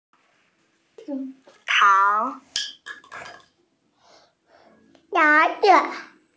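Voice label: "tang2", Mandarin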